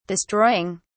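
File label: "destroying", British English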